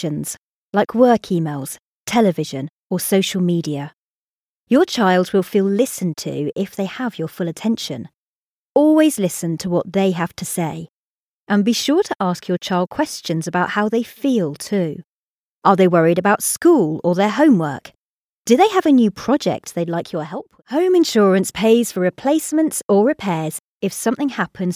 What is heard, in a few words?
E-Learning